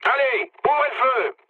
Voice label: attack position mood low, speech fr; War Thunder